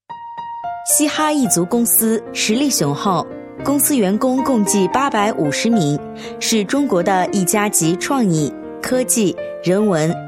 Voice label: 女 品牌广告, 国语女声配音; 嘻哈一族服饰公司

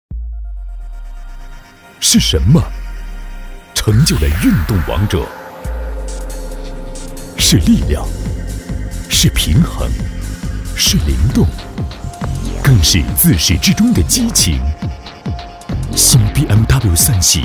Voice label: 男 广告, 浑厚; BMW3系

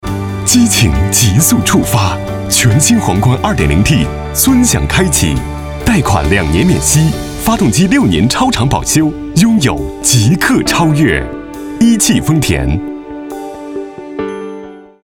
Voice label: 男 广告 时尚; 皇冠2.0T

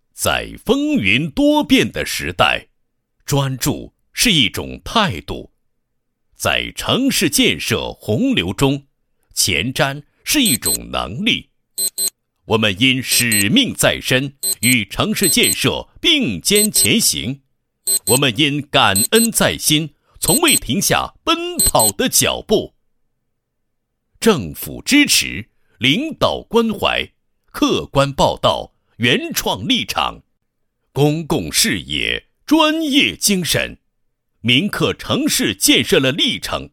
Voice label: 男 宣传片 房地产杂志十周年宣传片配音; 潍坊

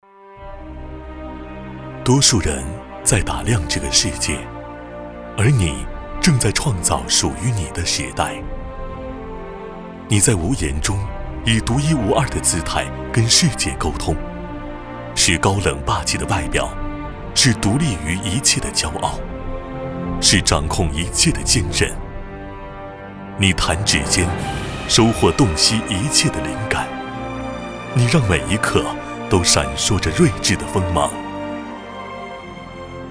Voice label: 男 专题 奢华大气; VETAS轻奢手机

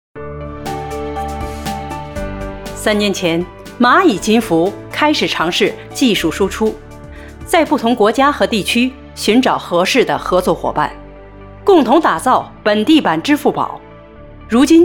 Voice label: 女, 时尚干练播报

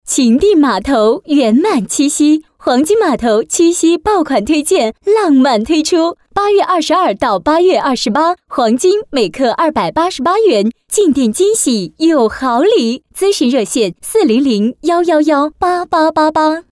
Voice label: 女, 广告; 黄金码头

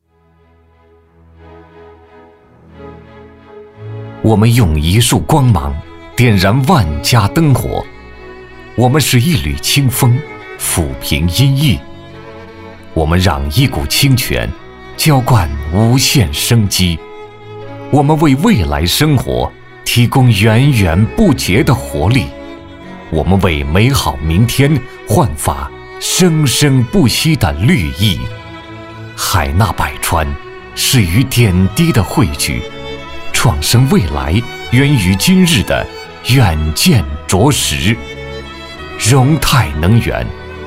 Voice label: 男100号-专题-企业专题 新能源企业 时尚 有节奏, 国语男声配音

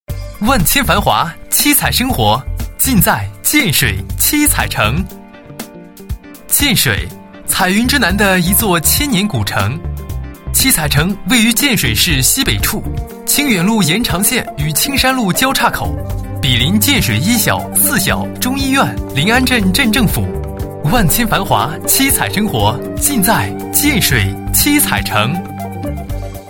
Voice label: 男 房地产广告, 配音; 七彩城宣传广告

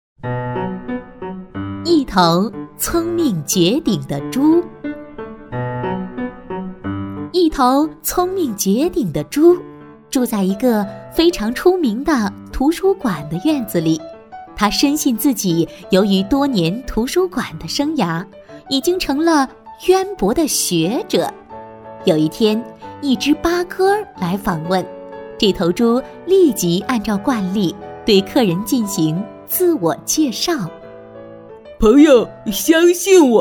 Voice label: 女 寓言故事; 国语女声配音; 一头学问渊博的猪

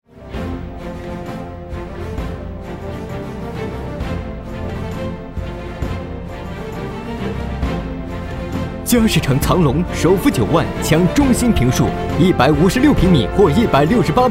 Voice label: 电台广告; 嘉实城藏龙

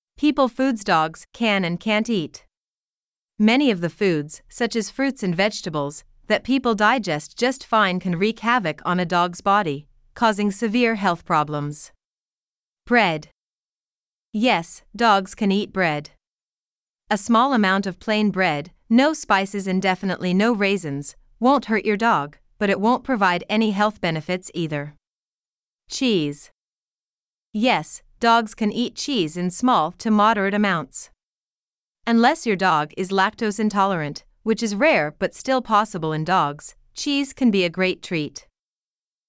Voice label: 外籍英语女, 美式, 问答; 关于狗狗能否吃的食物的问答

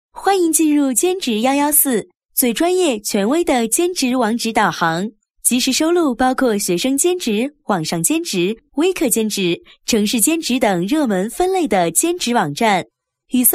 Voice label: 女 广告 网站导航语, 国语女声配音; 兼职114

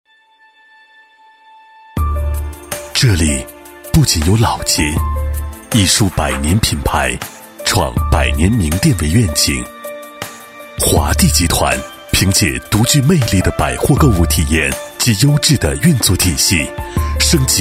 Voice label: 男 专题, 国语男声配音; 江南八佰伴商业综合体; 交广主播

